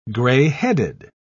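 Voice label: "grey-headed", British English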